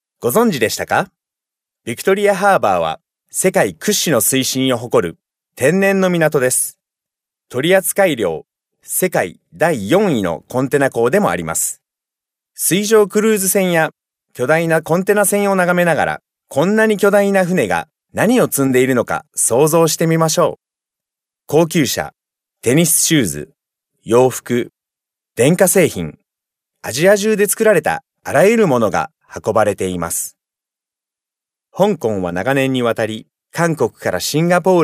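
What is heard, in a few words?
Japanese male corporate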